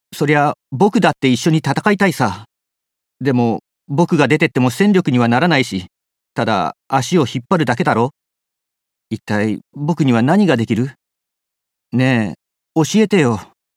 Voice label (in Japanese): 気弱キャラ